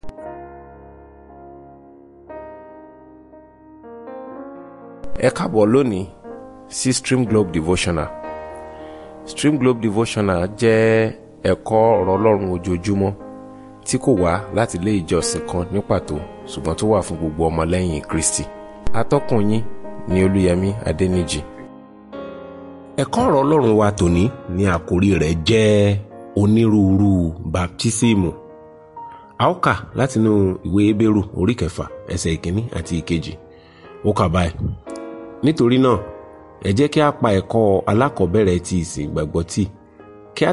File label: Devotional Audio Yoruba